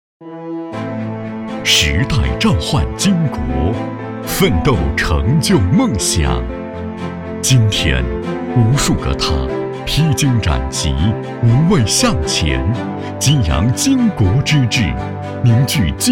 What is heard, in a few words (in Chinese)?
男, 政府专题; 时代召唤巾帼